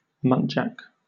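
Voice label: "muntjac", British English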